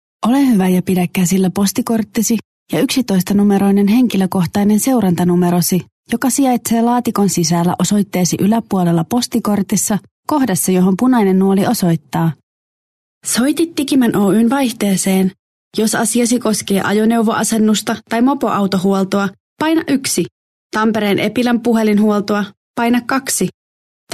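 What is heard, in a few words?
Finnish, female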